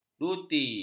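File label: "duti", Malay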